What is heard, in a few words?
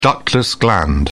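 "ductless gland", British English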